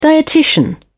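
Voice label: "dietitian", British English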